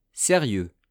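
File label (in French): "serieux", French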